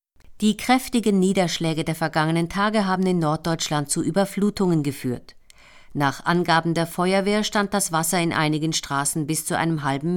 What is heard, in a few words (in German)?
Nachrichten; Sprachunterstützung